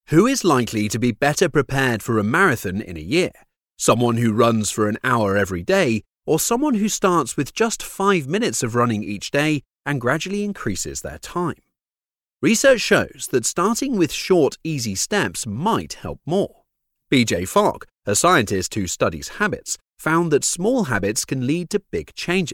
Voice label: British Voice Over, corporate